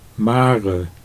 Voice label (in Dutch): "mare", Dutch